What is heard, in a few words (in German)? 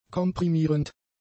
"komprimierend", German; adjectives